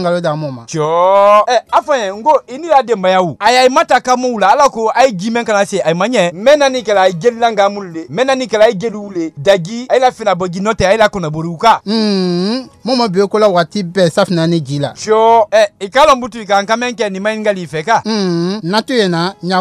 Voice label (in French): SPOT EBOLA 2 HOMMES KONIA